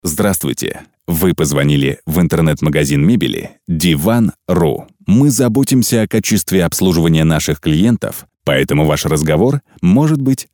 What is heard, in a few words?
IVR voice